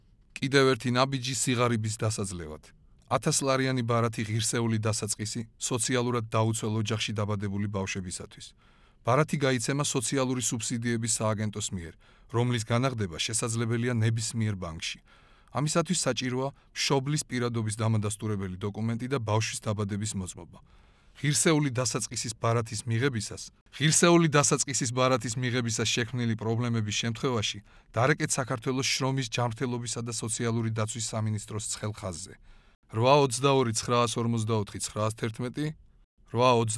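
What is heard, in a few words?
georgian male narration; voice demos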